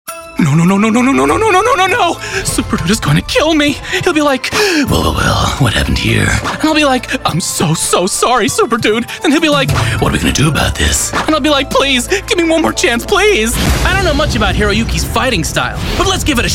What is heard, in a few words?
Animation